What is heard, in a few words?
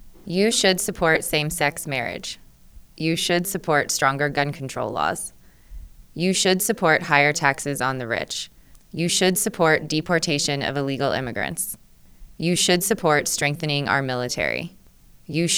female LOW, voice pitch; voice pitch 2018